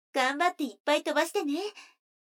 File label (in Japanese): 女の子 台詞